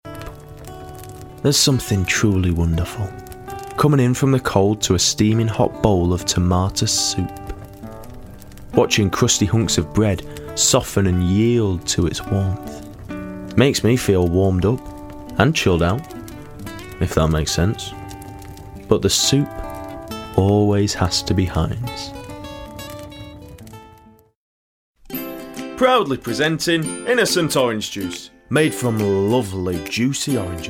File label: Commercial